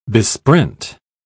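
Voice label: "besprent", British English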